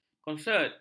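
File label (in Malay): "konsert", Malay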